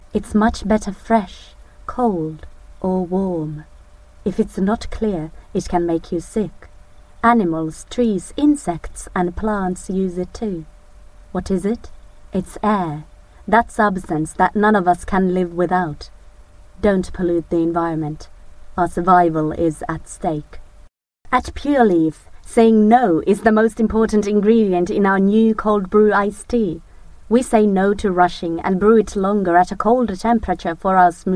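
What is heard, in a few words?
FI Accented English, Finnish; GoLocalise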